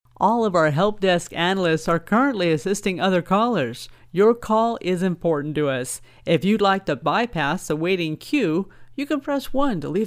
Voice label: ADS